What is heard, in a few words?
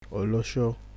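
"olosho", Nigerian Pidgin, male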